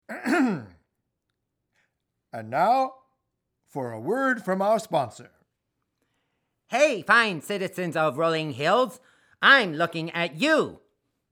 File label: Karaoke Commercials